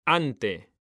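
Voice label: "ante", Spanish, male; mekanta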